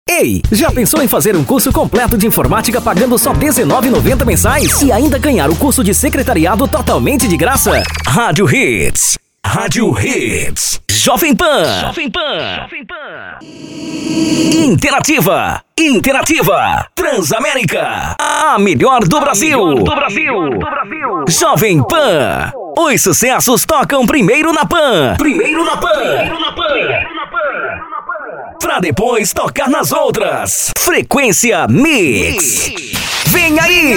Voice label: masculina

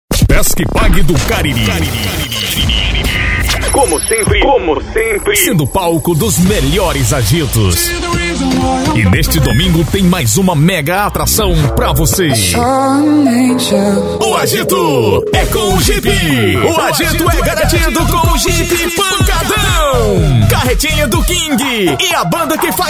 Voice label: locutor

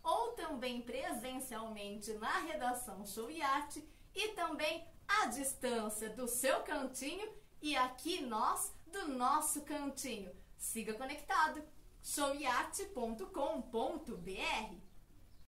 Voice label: voz grave entrevista; showeart

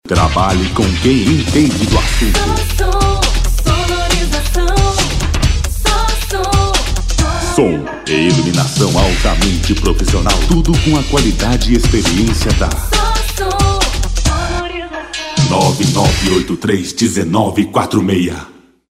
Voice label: jingle so som sonorizacao; troian estudio

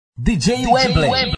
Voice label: vinheta

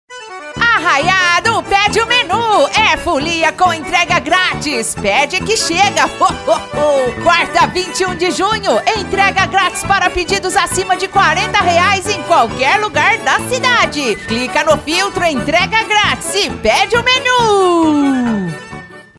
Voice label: caipira

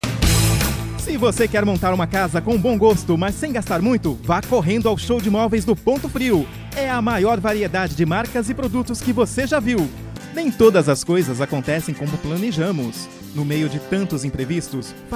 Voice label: portiforio comercial

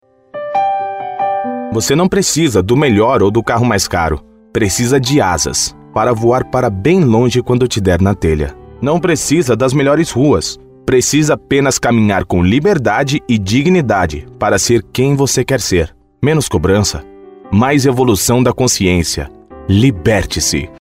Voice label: locucao institucional

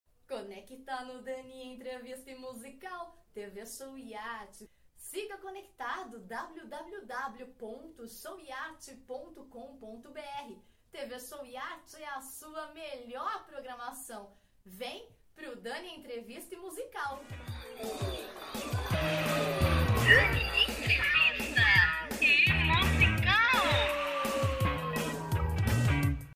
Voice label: entrevista e musical; sa conecta

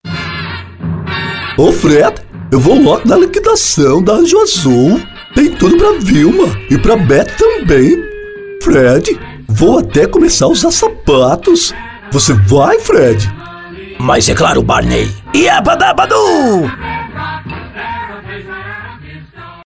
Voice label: voz impacto grave, locutor voz caricata; fred e barney; imitacao fred e barney

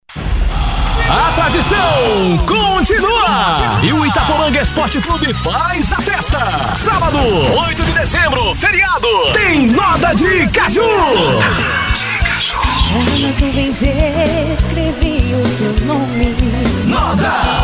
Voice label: chamada locutor

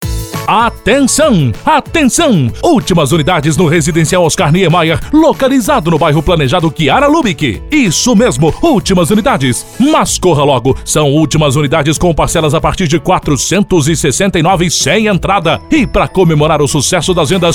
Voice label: VOZ IMPACTO GRAVE vinheta; residencial imoveis venda casas terrenos apartamentos

